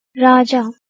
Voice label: "raajaa", Sanskrit

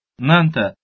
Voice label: "nante", Albanian

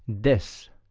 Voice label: "des", Occitan

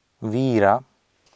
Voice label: "viira", Sanskrit

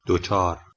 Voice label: "dochyar", Persian

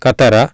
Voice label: "katara", Sanskrit

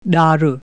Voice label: Sindhi crevice

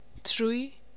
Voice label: "3" Faroese

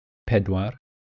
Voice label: "pedwar", Welsh

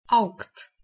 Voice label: "augt" Latvian

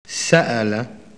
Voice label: Arabic asked; Arabic [saʔala] ‘asked’

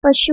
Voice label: "pashu" Hindi

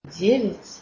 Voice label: Belarusian

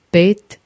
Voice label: "pet", Croatian

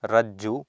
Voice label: "rajju", Sanskrit

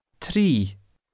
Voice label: "tri", Scots Gaelic

